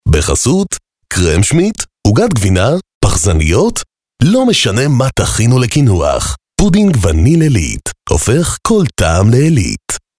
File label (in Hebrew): קריינות; חסות עלית